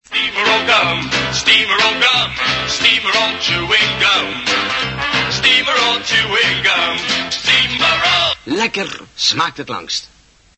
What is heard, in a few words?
commercial